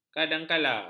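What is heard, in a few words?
"kadangkala", Malay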